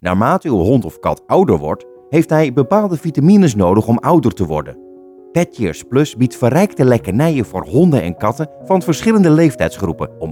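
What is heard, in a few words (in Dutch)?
Commercial demo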